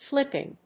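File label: "flipping", English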